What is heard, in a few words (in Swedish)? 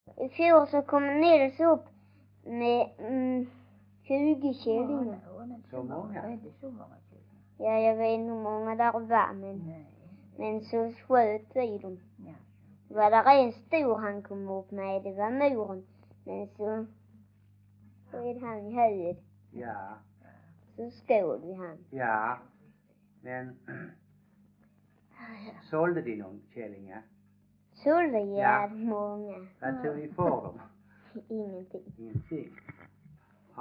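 Stehag Västra Sallerup, Swedish dialect; Folkminnen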